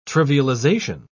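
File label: "trivialisation", British English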